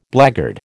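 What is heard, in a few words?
"blackguard", American English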